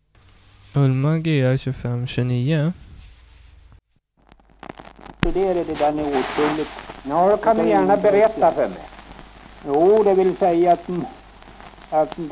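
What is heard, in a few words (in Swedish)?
Aspås Jämtland, Svenska sagor, dialekter; Folkminnen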